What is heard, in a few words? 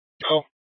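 Incident Tac 2, Scanner audio recording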